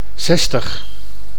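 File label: "zestig", Dutch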